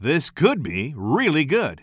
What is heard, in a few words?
commentator